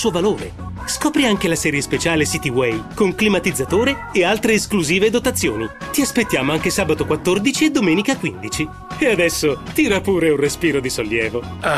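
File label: IT F commercial, Italian, female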